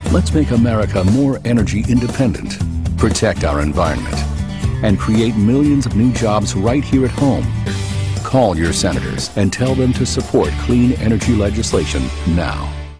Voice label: political friendly genuine upbeat